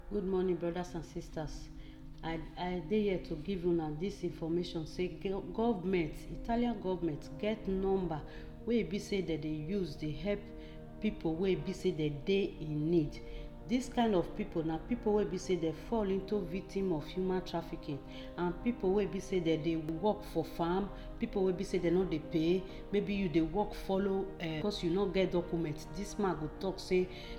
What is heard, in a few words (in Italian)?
Registrazione Pidgin-English